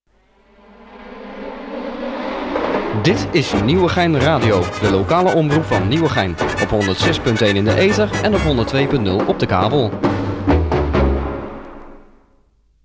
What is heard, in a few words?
Stationcall